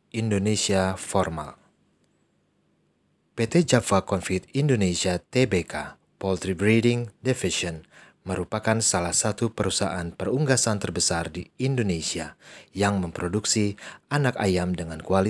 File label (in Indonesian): Indonesia Formal